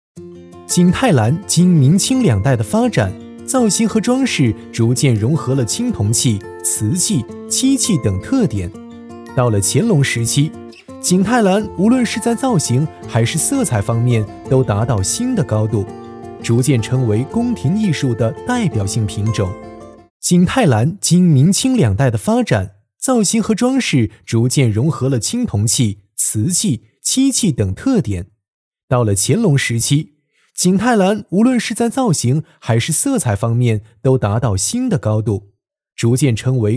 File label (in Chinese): 宣传片 病毒 景泰蓝 MG, 男